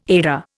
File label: "Edda", Indian